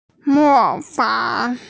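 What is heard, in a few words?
"mó fǎng", Mandarin Chinese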